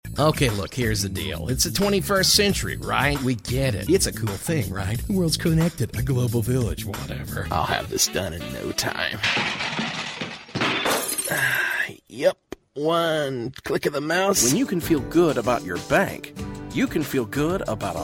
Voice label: commercial